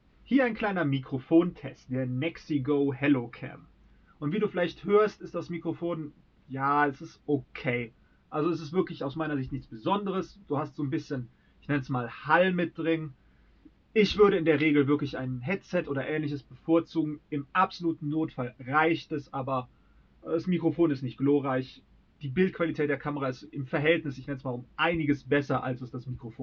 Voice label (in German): Mikrofon